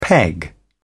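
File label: "peg", English, British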